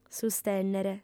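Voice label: "sustènnere", female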